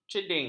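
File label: "ceding", Malay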